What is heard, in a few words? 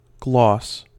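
"gloss", US English; pronunciations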